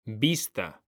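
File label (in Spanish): "vista", Spanish; mekanta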